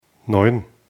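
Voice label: "neun", German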